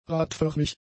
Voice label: "radförmig", German; adjectives

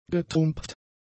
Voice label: "getrumpft", german; adjectives

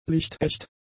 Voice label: "lichtecht", German; adjectives

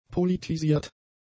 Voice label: "politisiert", German; adjectives

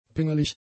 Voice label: "pingelig", German; adjectives

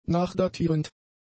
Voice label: "nachdatierend", German; adjectives

